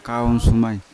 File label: "kauinsumai", Yawa; grasshopper